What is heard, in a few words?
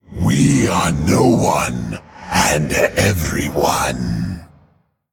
Male Monster Play